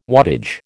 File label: "wattage", American